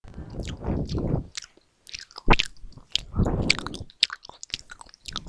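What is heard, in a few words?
"book", spoken word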